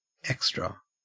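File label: "extra", Australian English; pronunciations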